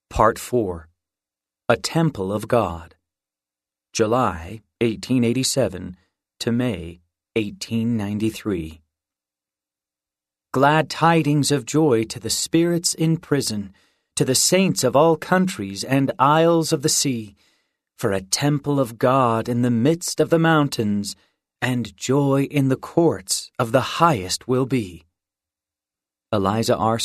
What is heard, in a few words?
male voice; part 4 a temple of god